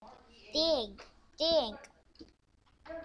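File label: "ding", spoken word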